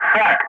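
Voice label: "shack", English; Ka-50; Wingman; Speech; DCS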